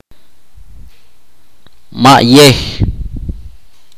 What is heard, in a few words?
"sin filo", itza